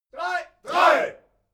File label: military shout german three